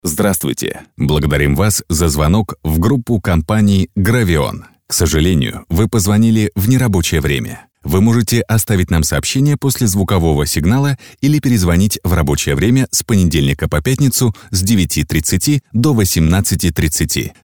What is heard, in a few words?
IVR voice